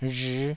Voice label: "rhii5", taiwanese